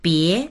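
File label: "bie", Mandarin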